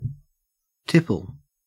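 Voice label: "tipple", Australian English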